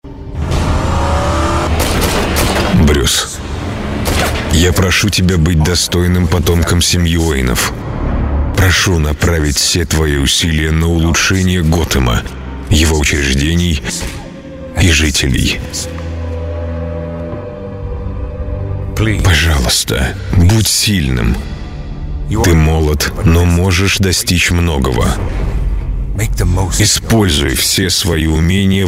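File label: Russian movie trailer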